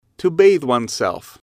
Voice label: "to bathe oneself", English